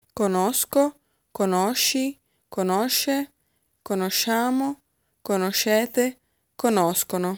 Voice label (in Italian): Conoscere coniugazione, Italian